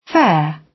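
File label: "fair", British; Design and innovation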